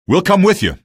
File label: evac ok, male; video games; npc; source engine; episodic; episode 1